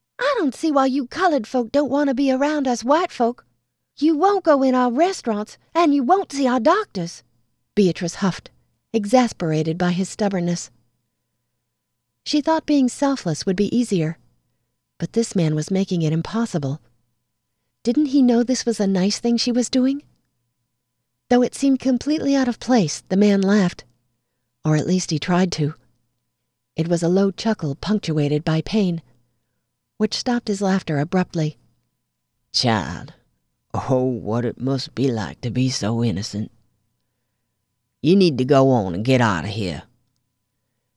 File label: audiobook samples